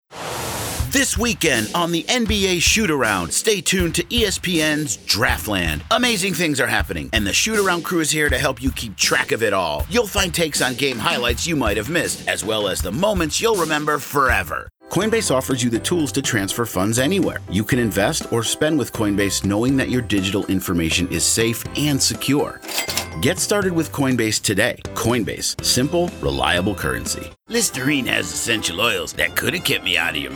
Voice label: Commercial